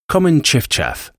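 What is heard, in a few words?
"common chiffchaff", English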